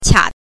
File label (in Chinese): "qia", pinyin